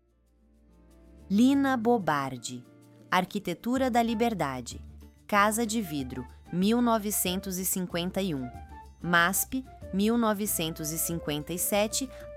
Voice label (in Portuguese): narracao; degraus obras